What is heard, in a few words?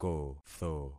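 "kotho", concatenated syllables; Affixing experiment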